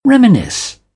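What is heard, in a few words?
"reminisce", British English; AmericanBritish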